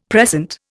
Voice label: "precent", Indian; Dictionary